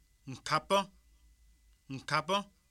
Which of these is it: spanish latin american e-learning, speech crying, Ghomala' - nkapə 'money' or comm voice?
Ghomala' - nkapə 'money'